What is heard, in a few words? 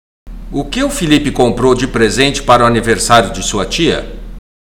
Portuguese Listening; Portuguese Test